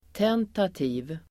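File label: "tentativ", Swedish; Uttal: [t'en:tati:v el. -t'i:v]; tentativ adjektiv, tentative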